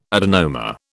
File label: "adenoma", British